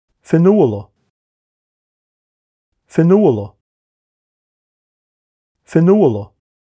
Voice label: "Fionnuala", Irish